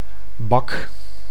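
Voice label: "bak", Dutch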